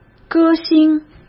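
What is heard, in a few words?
"歌星", Mandarin Chinese; hsk; chinese-flashcards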